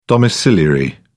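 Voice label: "domiciliary", British English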